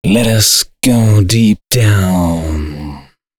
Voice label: male 130, short hooks, Dance Voice; Mutekki Media; Dance Ultra Voice VOL-1

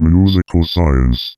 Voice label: Musical Science, Robotic Vox; Zero-G Club Techno

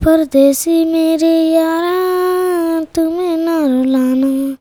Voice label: CHILD VX, Indian Traditions

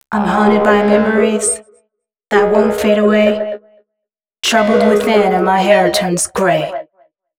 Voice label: female d136, spoken hooks; Mutekki Media; Dance Ultra Voice VOL-1

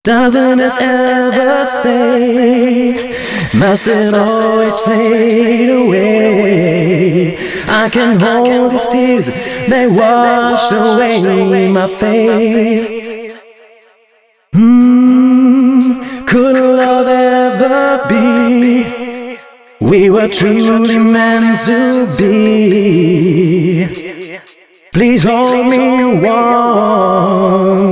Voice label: male, Dance Ultra Voice hooklines; Mutekki Media; Dance Ultra Voice VOL-1

